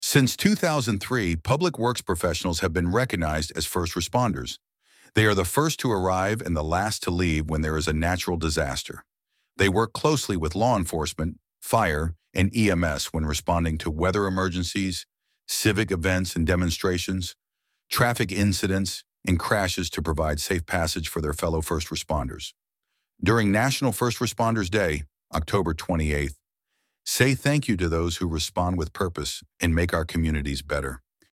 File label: Male Voice